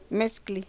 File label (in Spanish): "mes", Mexicano Guerrero; INALI